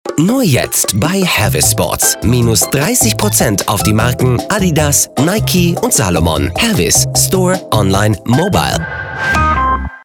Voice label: radiospot; Hervis; LOFT VOICES

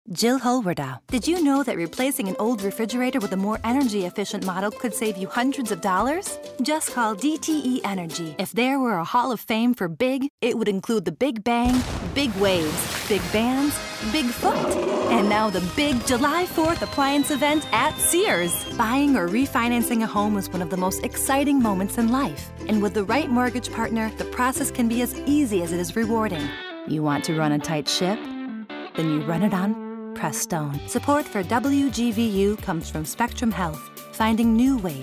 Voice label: Commercial

